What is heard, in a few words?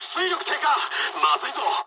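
Japanese damaged engine mood high; War Thunder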